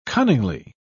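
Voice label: "cunningly", British English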